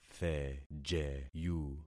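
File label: "thejeyu", concatenated syllables; Affixing experiment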